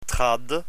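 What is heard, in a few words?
"trad", Dutch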